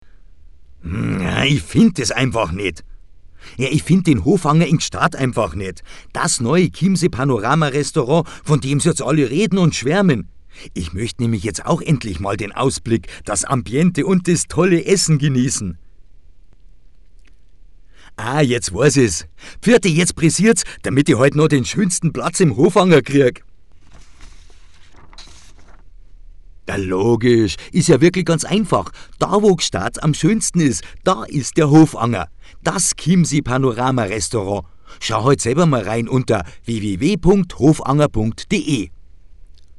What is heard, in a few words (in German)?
Dialekt Bayerisch